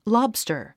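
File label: "lobster", English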